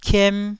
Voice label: "kiem1", taiwanese syllables